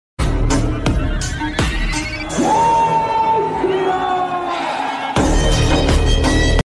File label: jai shree ram loud notification tone; hanuman message tone